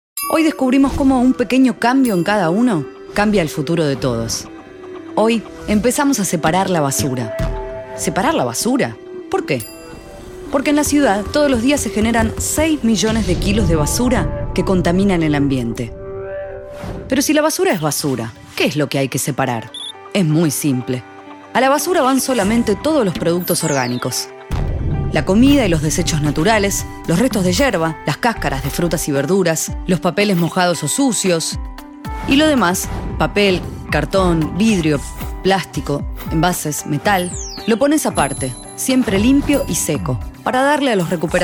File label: spanish argentinian promos